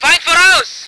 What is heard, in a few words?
voice ger vehicle